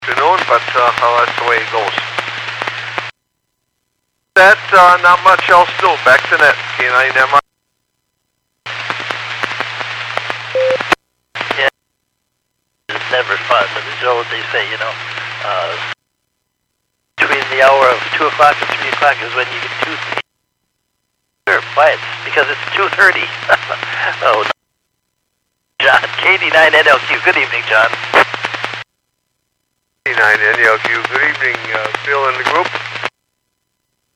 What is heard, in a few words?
Skywarn 146.67; Stevens Point